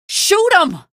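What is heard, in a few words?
citizen alert zombie, female; source engine; episodic; episode 1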